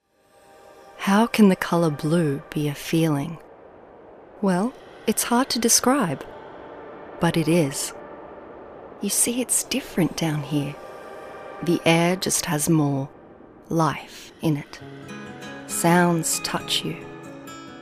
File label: Voice Over